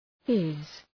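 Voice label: "fizz", English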